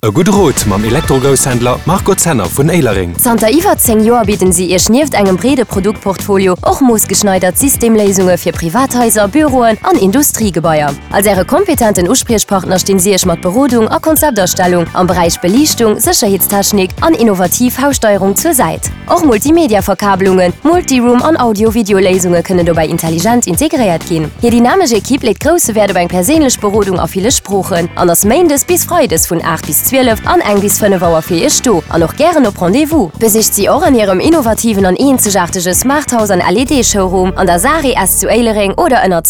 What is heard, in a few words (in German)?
radio werbespot; RTL